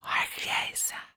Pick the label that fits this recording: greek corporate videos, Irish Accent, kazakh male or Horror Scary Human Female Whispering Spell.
Horror Scary Human Female Whispering Spell